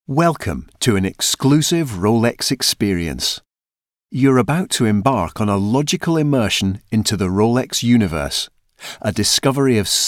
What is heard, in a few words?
Corporate Montage